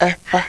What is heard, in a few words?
bilabial voiceless, ewe